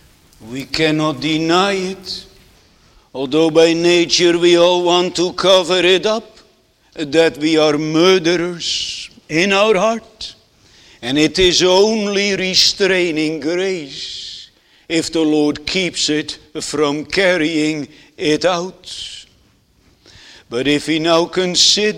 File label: Sermon Continued